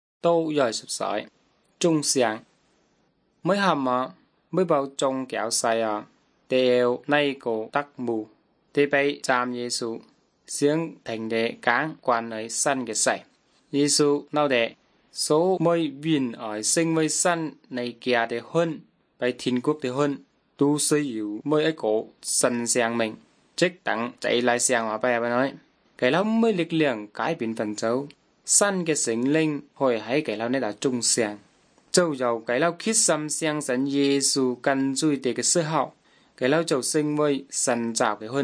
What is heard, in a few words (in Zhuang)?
good news zhuang nanning fushu